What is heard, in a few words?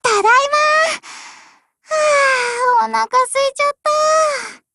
OPERATION OVER, JP; GF Alarm